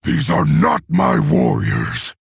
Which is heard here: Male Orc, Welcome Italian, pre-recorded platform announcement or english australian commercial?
Male Orc